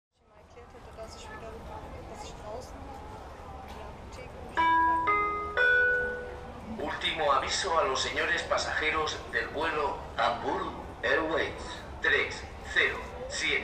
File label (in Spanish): ultimo avisto Friedrichshafen, Flughafen-Ansagen; Hamburg Airways